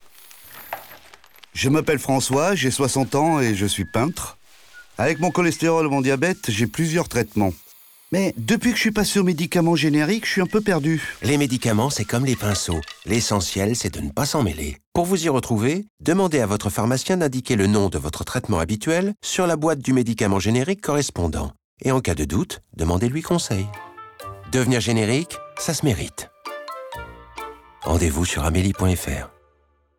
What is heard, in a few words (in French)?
Radio; Le peintre; Polymedication